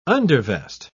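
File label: "undervest", British English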